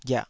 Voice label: "ghiah3", Taiwanese syllable